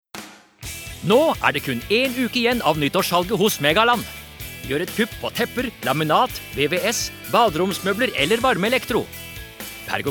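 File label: Norwegian hard sell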